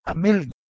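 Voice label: "h2melg", Proto-Indo-European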